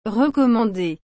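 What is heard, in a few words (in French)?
"recommandée", French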